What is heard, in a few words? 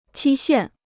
"期限", Chinese